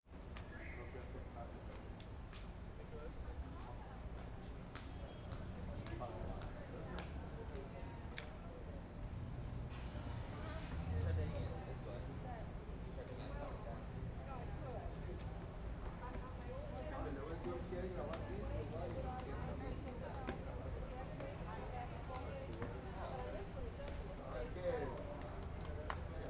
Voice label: voices on street accent